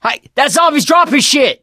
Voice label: fallen survivor, survivor voice; Left 4 Dead 2; Source Engine; video games

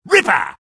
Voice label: sniper taunt rps win; video games; source engine; tf; taunts

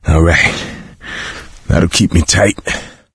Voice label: pain relief pills, coach, survivor voice; video game; source engine; left 4 dead 2

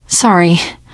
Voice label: sorry, teen girl voice; source engine; left 4 dead 2; survivor

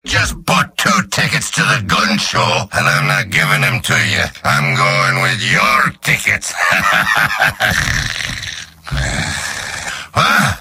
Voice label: gibberish; demoman; video games; source engine; tf; mvm

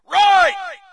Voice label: brit right; player; Source Engine; dod

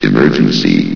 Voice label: "emergency", vox; Source Engine; bshift

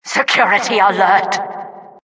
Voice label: announcer security alert

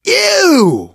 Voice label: reaction disgusted, survivor voice, manager

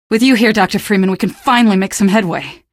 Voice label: squad greet, female; Source Engine; video game; npc

